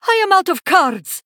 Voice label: Female Draenei No Cards